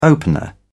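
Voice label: "opener", British English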